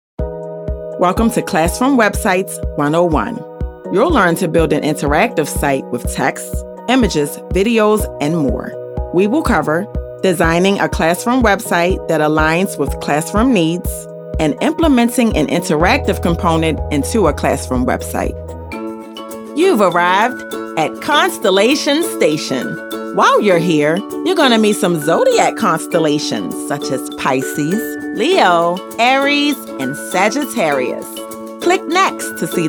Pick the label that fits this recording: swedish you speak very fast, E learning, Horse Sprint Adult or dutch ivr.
E learning